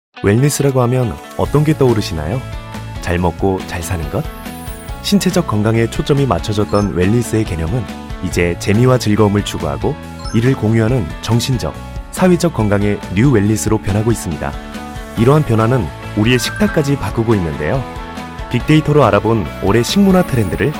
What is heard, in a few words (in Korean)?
편안한 신뢰적인 밝은; CJ제일제당